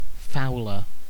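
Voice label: "fouler" British English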